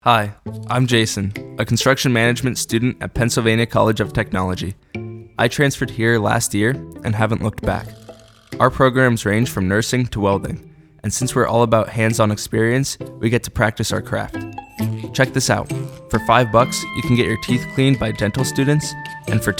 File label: Spotify Ad music